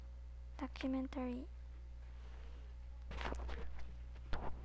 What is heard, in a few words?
documentary